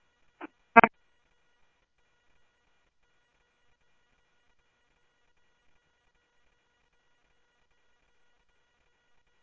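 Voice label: police radio dispatch, Scottsdale; SCTSDLE PD - PD1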